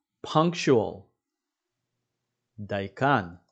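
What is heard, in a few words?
"punctual" English, "דייקן" Hebrew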